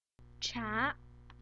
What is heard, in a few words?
tea mandarin